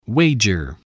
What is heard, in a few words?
"wager", spelling